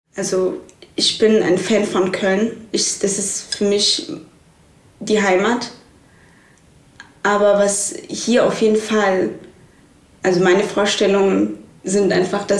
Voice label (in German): kurdisch